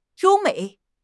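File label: "you mei", Chinese; 汉语口语新时代-初级下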